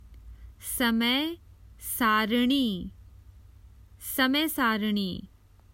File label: Common Hindi Phrases for travel The timetable